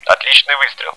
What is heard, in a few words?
good shot, bot; cstrike